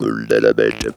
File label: "OULALA", funky vocals; USB Soundscan vol.18; AKAI; TB MELODY